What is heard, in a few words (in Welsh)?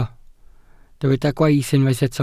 "gwaith" Welsh